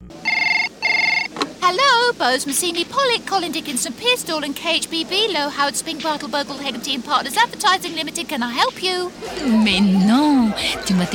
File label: Character